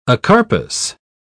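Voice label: "acarpous", English, British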